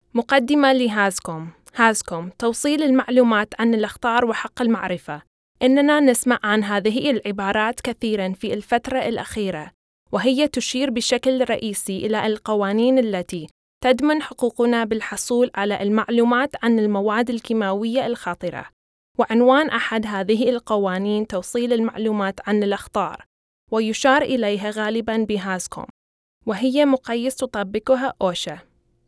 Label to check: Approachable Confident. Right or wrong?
Wrong